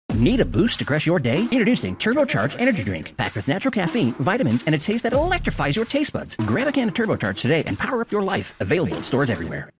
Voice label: Commercial Energy Drink